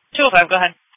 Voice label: Police Dispatch